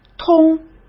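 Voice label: "通", Mandarin Chinese; hsk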